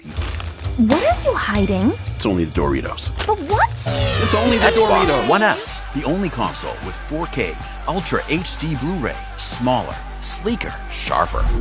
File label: Radio Ad